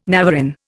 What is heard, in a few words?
"navarin", Indian